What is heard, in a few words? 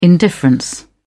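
"indifference", British English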